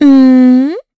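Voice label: Vox Think, English; Estelle; kingsraid; voices heroes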